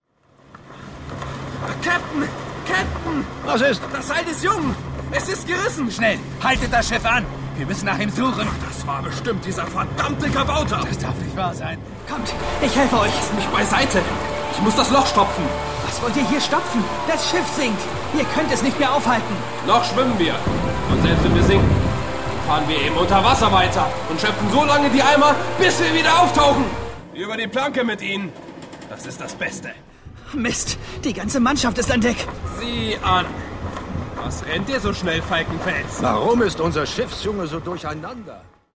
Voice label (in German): Sprechprobe Matrose Hörspiel; Die letzten Helden